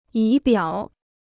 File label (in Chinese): "仪表", Chinese